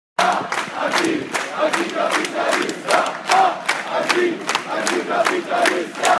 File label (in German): Sprachnachricht